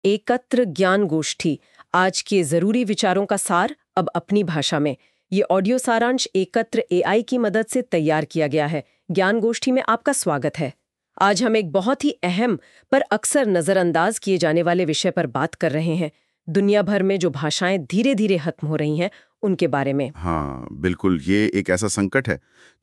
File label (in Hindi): Audio Hindi; Endangered Languages